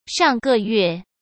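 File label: "上个月", Mandarin Chinese; hsk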